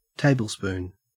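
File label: "tablespoon", Australian English; pronunciation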